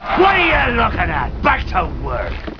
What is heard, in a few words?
back to work, pirate